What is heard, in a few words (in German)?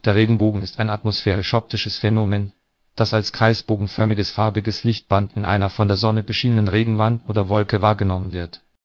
speaker, de DE; piper-voices